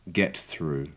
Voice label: "get through", English